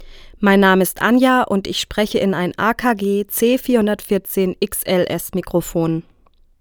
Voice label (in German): akg c414 xls sprache weiblich